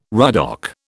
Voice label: "ruddock", British